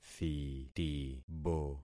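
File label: "thitibo", concatenated syllables; Affixing experiment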